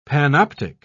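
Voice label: "panoptic", British English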